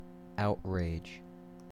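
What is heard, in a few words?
"outrage", US English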